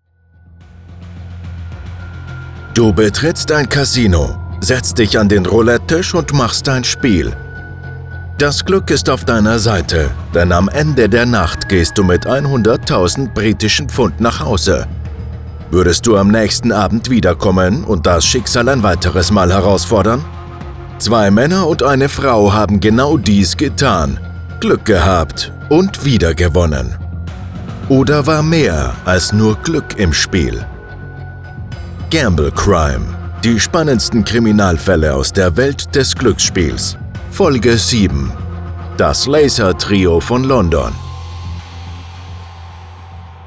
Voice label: Podcast